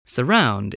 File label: "surround", English